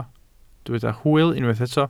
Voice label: "hwyl", Welsh

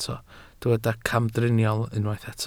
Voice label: "camdriniol", Welsh

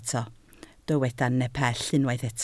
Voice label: "nepell", Welsh

